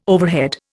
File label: "overhead", Indian